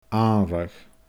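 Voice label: "aanradh", Scottish Gaelic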